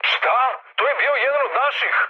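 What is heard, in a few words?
Serbian player killed ally mood med; speech; War Thunder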